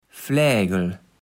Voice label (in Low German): "Flägel", Platt